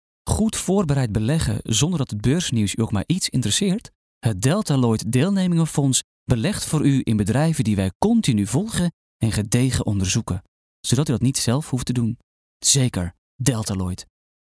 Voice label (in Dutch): Zakelijk